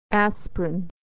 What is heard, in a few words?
"aspirin", pronunciation